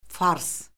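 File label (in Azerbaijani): "fars", Azerbaijani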